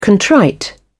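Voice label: "contrite", British English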